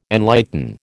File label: "enlighten", American